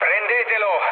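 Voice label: Italian attack position mood low, speech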